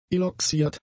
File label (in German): "eloxiert", german; adjectives